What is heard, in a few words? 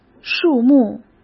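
"树木", Mandarin Chinese; chinese-flashcards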